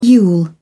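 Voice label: "yule", British English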